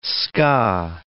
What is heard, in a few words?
"ska", words